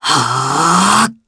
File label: Vox Casting jp; Fluss; kingsraid